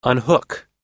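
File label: "unhook", English pronunciation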